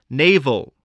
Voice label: "naval", voice